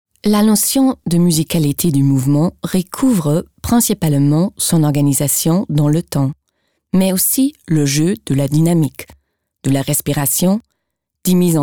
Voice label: FR Kommentar Sanft